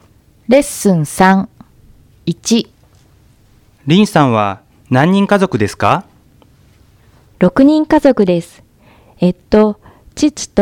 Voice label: Conversation